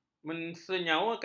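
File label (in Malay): "mensenyawakan", Malay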